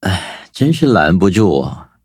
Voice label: defeat, zh-hant; Cookie Run

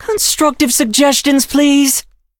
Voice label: touch, en; Cookie Run

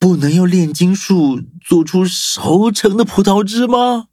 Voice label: loading, zh-hant; cookie run

